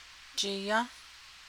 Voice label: eat it 4th person, Navajo